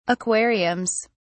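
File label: "aquariums", British English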